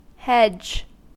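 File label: "hedge", US English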